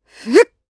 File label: Vox Casting jp; voices; heroes; Glenwys; kingsraid